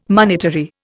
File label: "monetary", Indian